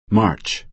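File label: "march", American English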